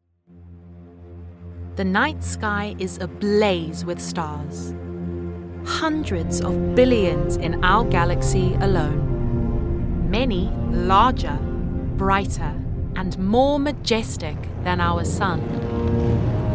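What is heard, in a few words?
ENSA Documentary, South African English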